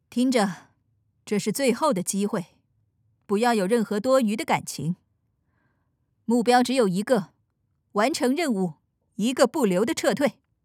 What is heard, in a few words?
foreigner; 中国語